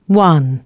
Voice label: announcements, female